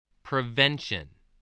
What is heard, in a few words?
"prevention", voice, spelling